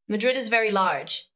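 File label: VoiceActing Spanish, female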